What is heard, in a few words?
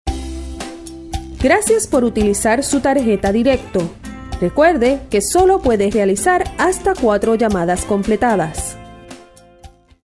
spanish female, onhold message